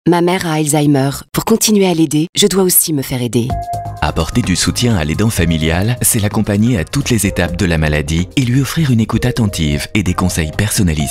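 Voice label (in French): informatif humain, Comédien voix off, publicité; bienveillant, informatif; FRANCE ALZHEIMER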